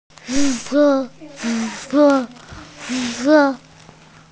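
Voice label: "fu2 wu4", Mandarin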